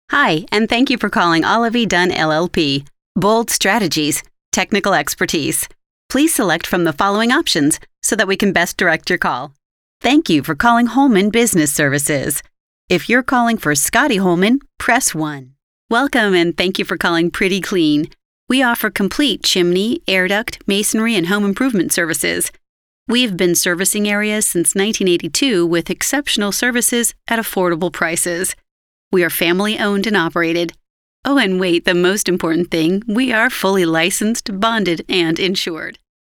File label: female; TELEPHONE VOICE OVER TALENT